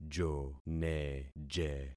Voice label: "joneje", concat syllables; Affixing experiment

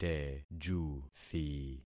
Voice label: "tejuthi", concat syllables; Affixing experiment

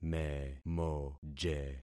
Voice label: "memoje", concat syllables; Affixing experiment

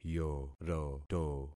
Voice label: "yoroto", concat syllables; Affixing experiment

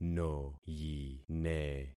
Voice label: "noyine", concat syllables; Affixing experiment

